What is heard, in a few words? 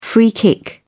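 "free kick", British English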